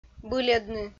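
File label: "быльӧдны", Komi, female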